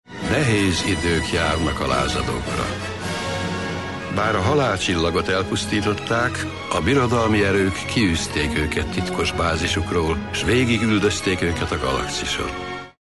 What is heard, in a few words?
narrator; esb